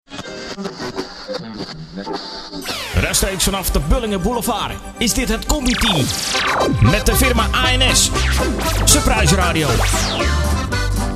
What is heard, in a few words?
Jingle; Combi / Team (met de Klompenboer); Audio Fragmenten; Arkan Radio 2